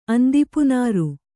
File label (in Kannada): "ಆಂದಿಪುನಾರು", Kannada